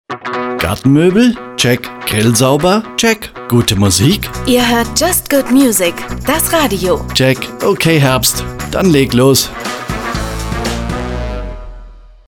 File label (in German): Station ID